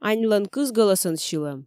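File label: "аньлӧн кыз гӧлӧсӧн сьылӧм" Komi, female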